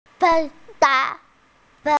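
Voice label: "bi4 ye4", Mandarin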